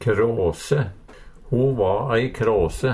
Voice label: "kråse", Numedalsmål; Substantiv hokjønn

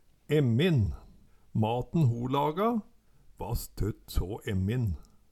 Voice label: emmin, Numedalsmål

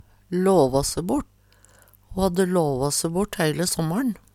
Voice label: lava se bort, Numedalsmål; Ho hadde låvå se bort heile såmmår`n.

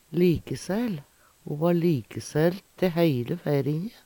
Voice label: likesael, Numedalsmål; Veggli; Adjektiv

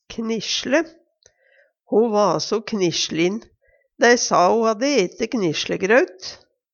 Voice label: knisle, Numedalsmål; Ho va so knislin, dei sa ho hadde ete knislegraut.

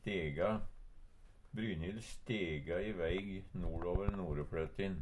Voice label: stega, Numedalsmål

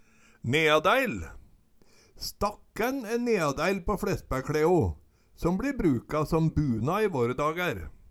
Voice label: neadaeil, Numedalsmål

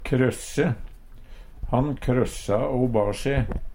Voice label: krossje, Numedalsmål

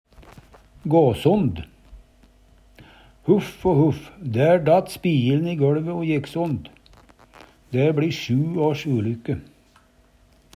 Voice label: "gå sond", Numedalsmål; Eksempel på bruk Huff o huff, dær datt spijil'n i gøLve o jekk sond. Dæ bli sju års ulykke

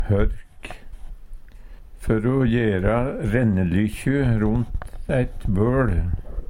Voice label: hork, Numedalsmål; Før å jera rennelykkju ront ei bøL.

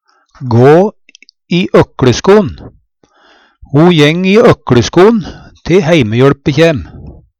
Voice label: gå i økLesko'n, Numedalsmål; Uttrykk; Ho jeng i øklesko'n te heimehjøLpe kjæm.

